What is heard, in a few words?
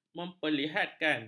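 "memperlihatkan", Malay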